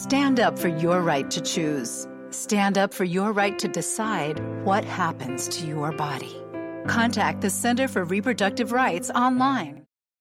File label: Eng Pol Ad, voice over demos; Center for Reproductive Rights